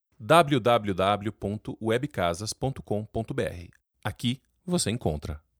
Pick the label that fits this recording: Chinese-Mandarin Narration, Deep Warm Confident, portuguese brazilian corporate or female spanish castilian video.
portuguese brazilian corporate